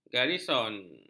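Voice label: "garison", Malay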